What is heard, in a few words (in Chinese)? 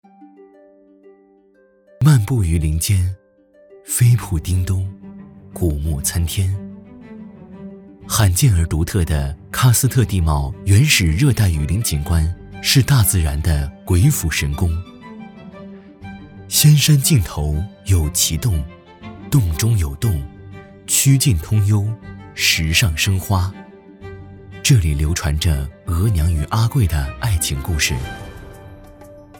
纪录柔和讲述; 海南仙山